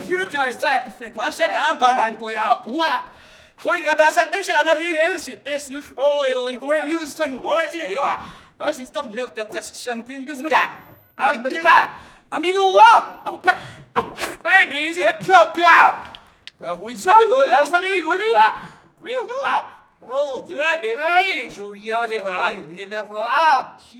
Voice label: violent argument between